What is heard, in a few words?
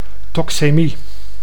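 "toxemie", Dutch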